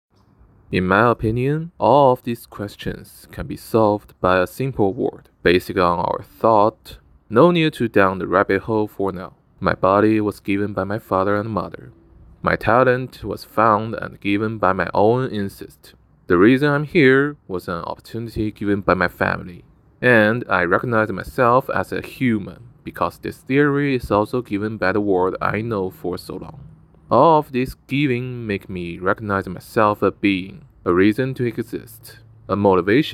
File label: Reading